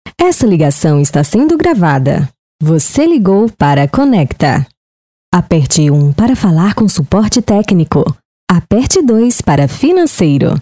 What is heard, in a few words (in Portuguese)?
espera telefonica